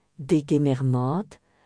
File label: welcome, Breton; Degemer mat; brezhoneg (Breton)